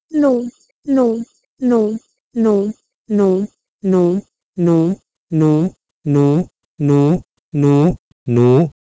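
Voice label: Proto-Indo-European nu to Sanskrit nuu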